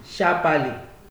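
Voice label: "sharpaly", Nigerian Pidgin, male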